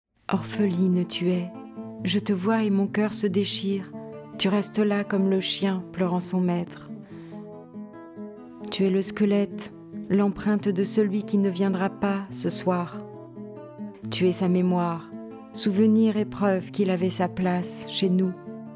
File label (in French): francais et arabe; Chaise de l'absent